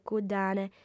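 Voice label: "cuddane", female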